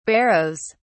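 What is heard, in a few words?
"barrows", British English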